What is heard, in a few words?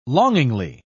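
"longingly", British English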